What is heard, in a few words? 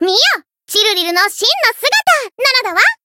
voice jp; thillelille